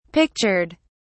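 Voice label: "pictured", British English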